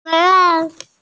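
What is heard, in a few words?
"shan1yang2" Mandarin Chinese, female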